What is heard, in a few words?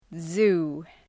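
"zoo", English; {zu:}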